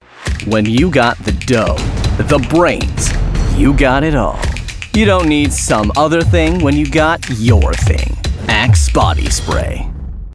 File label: Body Spray Inspirational Powerful